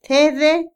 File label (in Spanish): sonreír, Otomí, mujer; INALI